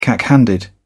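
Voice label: "cack handed", British English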